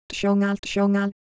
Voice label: Korean, ideophones